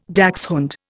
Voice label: "dachshund", Indian